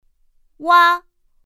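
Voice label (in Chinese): "wā", Mandarin Chinese; pinyin2; pinyinji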